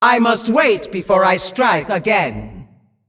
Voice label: Female Demon ERROR I ATTACKED